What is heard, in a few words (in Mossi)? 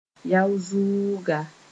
"yao-zʋʋga", moore